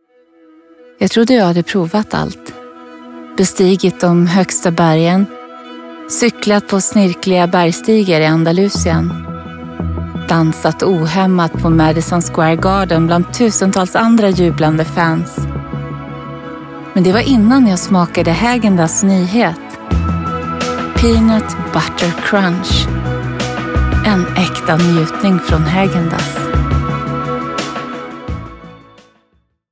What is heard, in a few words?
swedish others